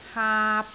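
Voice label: "haap3", Cantonese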